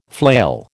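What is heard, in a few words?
"flail", American English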